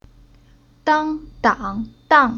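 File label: "Dang", Chinese syllable; Уроки фонетического блока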